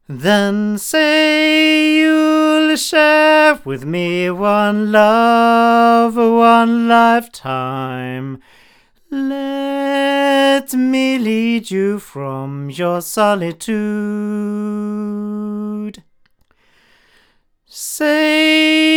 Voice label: Avant CV-12 tube cardioid male